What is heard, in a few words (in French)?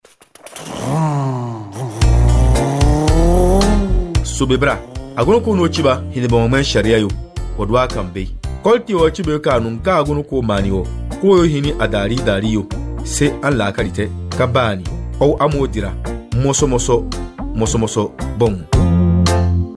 CODE DE LA ROUTE DENDI